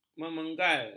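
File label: "memenggal", Malay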